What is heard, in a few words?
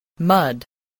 "mud", voice, spelling